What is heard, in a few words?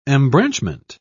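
"embranchment", British English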